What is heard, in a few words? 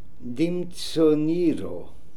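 "Dimtzoneero", pronunciations; Fourth Way; Beelzebub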